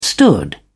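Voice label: "stood", English, British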